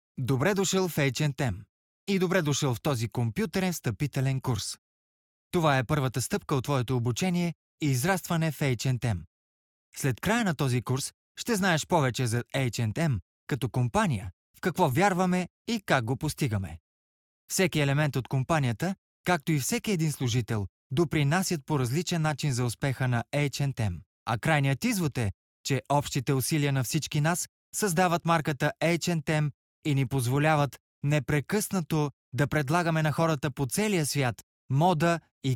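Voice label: bulgarian male e-learning; voice demos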